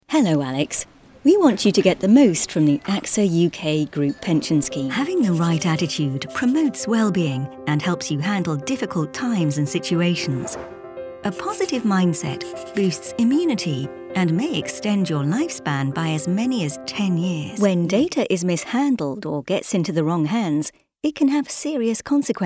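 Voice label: uk elearning, British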